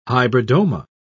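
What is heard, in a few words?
"hybridoma", British English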